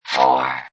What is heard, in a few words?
Robot Voice "0"